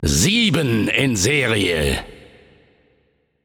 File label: Announcer German Spree Kills